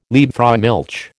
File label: "Liebfraumilch", American